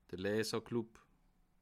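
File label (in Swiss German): "leserclub", Alsatian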